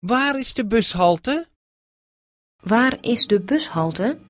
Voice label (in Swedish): Holländska